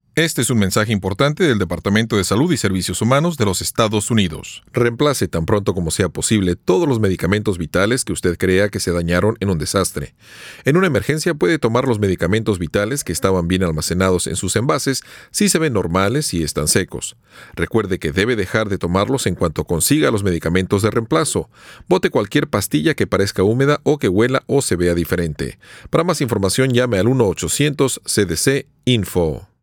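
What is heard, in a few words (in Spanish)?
Spanish PSA; CDC; Lifesaving Drugs; disasters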